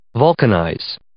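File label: "vulcanize", English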